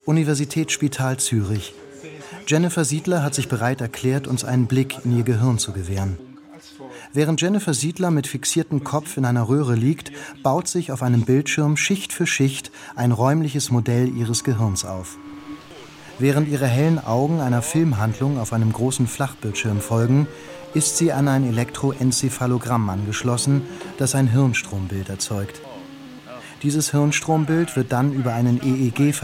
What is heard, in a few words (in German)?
Feature Dokumentation; WDR; Vom Leben Mit Fremden Stimmen; LOFT VOICES